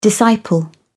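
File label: "disciple", English, British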